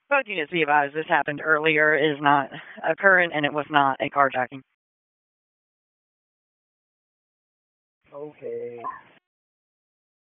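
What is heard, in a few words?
Raleigh Police Northeast District Dispatch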